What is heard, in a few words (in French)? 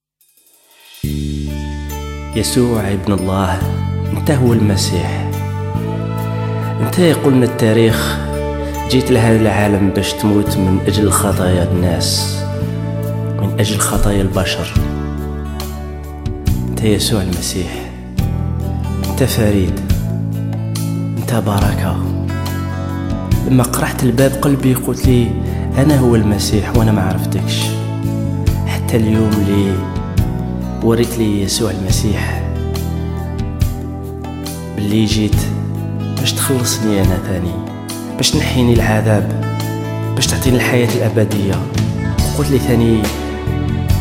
temoignage kabyle